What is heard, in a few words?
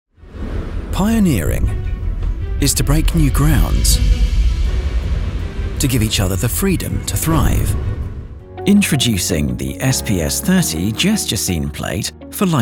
young UK male voice over artist Corporate Videos